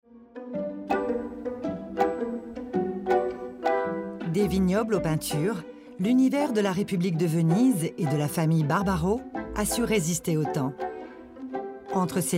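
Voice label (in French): tresors italie, voix off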